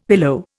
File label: "pillow", Indian; Dictionary